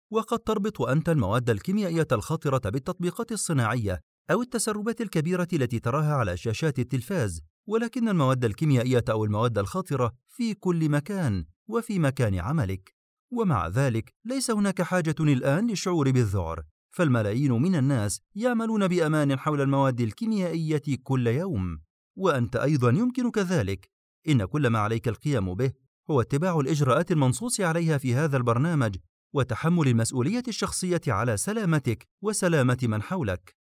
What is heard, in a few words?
Arabic Explainer Video Voice Overs